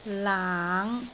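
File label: "laang5", Cantonese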